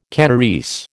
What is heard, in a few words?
"Kanarese", American